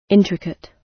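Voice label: "intricate", British English; The arts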